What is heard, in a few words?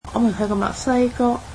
cantonese we visited Mexico